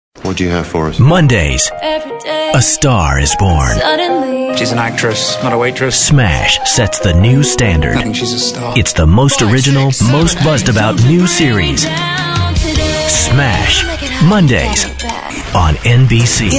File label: promo